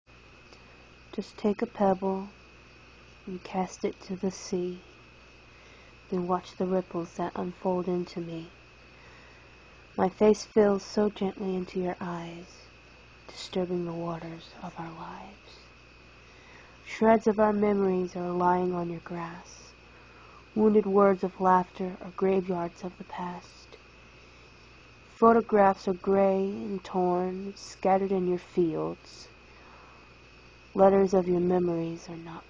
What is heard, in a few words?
dramatic reading